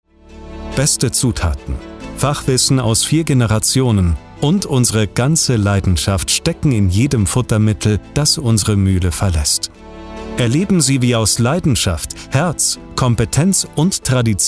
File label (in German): imagefilm